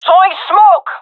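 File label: throwing smoke, gendarmerie female; vo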